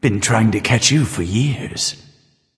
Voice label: taunt directed; ratatoskr; smite; Ullr

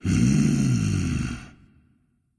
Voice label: thinking; Smite; cabrakan

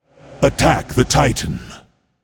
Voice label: vox attack m; smite; ganesha

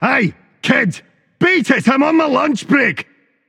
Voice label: taunt, voice; smite; tyr

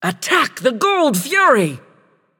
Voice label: vox attack; smite; babayaga

